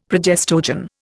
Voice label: "progestogen", Indian; Dictionary

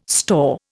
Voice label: "store", Indian

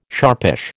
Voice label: "sharpish", American

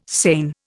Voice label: "seine", Indian

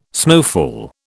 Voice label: "snowfall", British

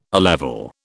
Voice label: "A level", British

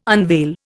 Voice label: "unveil", Indian

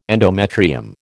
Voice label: "endometrium", American

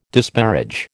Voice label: "disparage", American

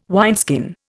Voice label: "wineskin", Indian

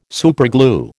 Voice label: "superglue", American

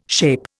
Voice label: "SHAPE", Indian

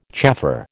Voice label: "chaffer", American

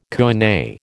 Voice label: "koine", American

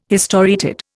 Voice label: "historiated", Indian

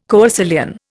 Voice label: "coecilian", Indian

